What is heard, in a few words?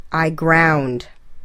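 "I ground", English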